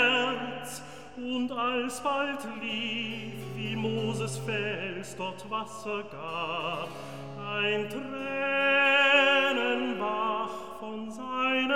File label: Recitativo evangelist, Petrus; Handel Masterworks